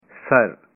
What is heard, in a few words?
"head", punjabi